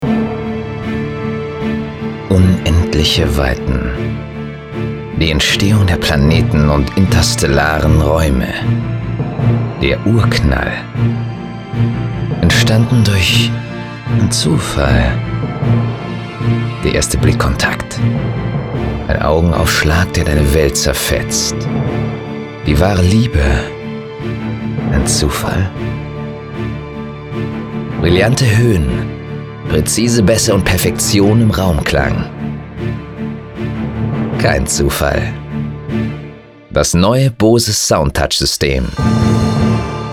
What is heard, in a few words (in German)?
funkwerbung; pompoes; bose